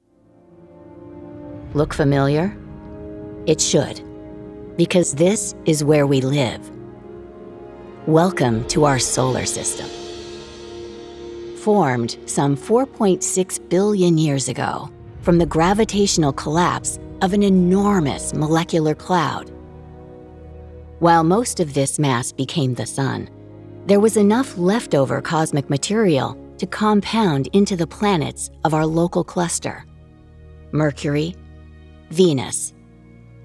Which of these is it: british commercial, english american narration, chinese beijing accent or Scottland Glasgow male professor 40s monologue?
english american narration